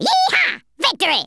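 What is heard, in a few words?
"victory" spoken, Redneck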